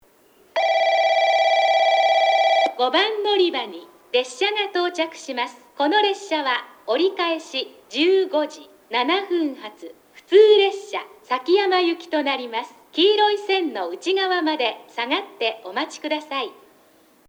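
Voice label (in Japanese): 5番のりば接近放送, 女声, ディーゼル列車の騒音; 行橋; 平成筑豊鉄道・崎山行き; 標準の放送内容ですが、南福岡と同様に「危険ですから」フレーズがなぜかありません。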